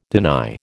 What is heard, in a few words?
"deny", American